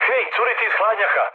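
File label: Serbian damaged water mood med; speech; War Thunder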